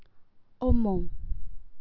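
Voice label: "almond", Vietnam, female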